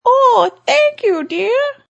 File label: Grandma